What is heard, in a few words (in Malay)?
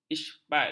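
"isbat", Malay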